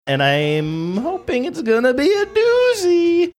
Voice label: saying and im hoping its gonna be a doozy funny drawn out